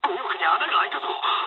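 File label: right wing mood low, speech japanese; War Thunder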